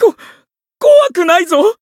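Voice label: Voice encounter fear, Japanese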